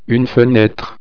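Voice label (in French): "fenetre", French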